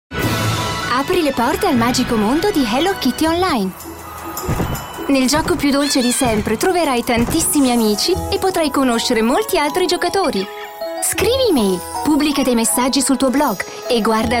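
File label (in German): Italian, Werbung, Dynamisch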